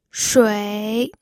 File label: "shui3", pinyin